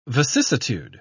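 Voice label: "vicissitude", British English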